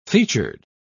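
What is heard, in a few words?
"featured", British English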